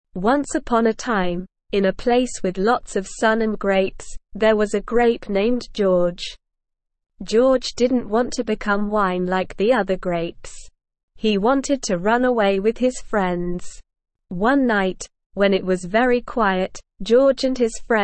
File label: ESL Short Stories for Kids Beginner SLOW Reading; The Great Grape Escape